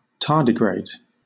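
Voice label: "tardigrade", British English; pronunciation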